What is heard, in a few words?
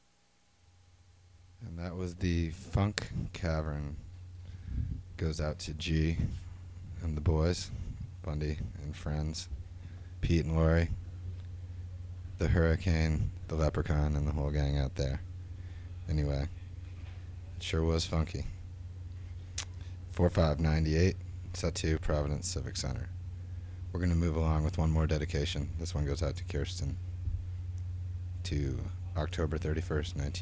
radio banter; Lemonwheel